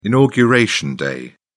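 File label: "inauguration day", British English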